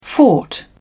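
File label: "fort", English, British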